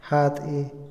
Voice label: mentir, Otomí, hombre; Emociones; INALI

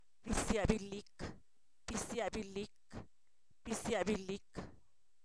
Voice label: gavilán, Zapoteco, san miguel albarradas; animales; INALI

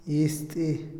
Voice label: ácido, Otomí, hombre; Percepción; INALI

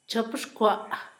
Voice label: doble, Zapoteco, Asunción Ocotlan; Números; INALI

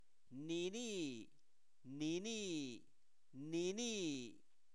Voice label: aquel, Zapoteco, santo domingo albarradas

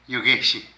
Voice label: Casa de huerta, Zapoteco de Petapa; Vocabulary

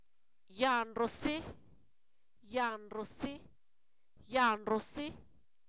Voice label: ahora si, Zapoteco, san miguel albarradas; adverbios

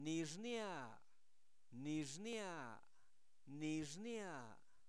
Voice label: Zapoteco Albarradas, santo domingo albarradas; adjetivos; rojo; INALI

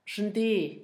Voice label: calentura, Zapoteco, Asunción Ocotlan; Enfermedades; INALI; Haspelmath

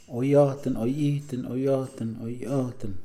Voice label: "paraíso", Mixe, hombre; Religión

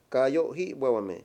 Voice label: "ayunar", Mayo; Religón y creencias; INALI

